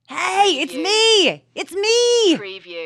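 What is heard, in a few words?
Wmn Sys Its Me Its Me, woman says its me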